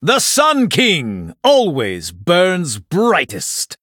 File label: male blood elf fire festival